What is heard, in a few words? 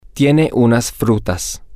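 Spanish, male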